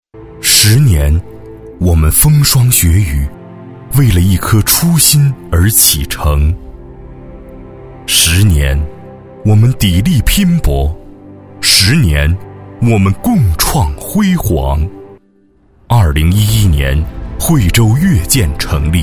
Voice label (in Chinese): 专题 企业 十周年 稳重, 男; 惠州; 越健